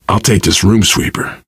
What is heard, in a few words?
take shotgun, player survivor voice biker; source engine; left 4 dead 2